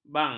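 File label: "bang", Malay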